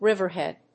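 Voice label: "riverhead", English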